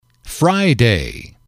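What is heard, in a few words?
"friday", english, male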